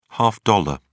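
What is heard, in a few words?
"half dollar", British English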